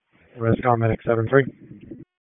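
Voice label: EMS DISP, radio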